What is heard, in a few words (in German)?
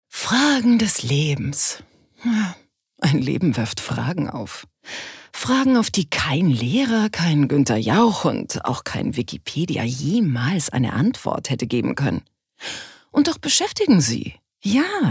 Schauspiel Dynamisch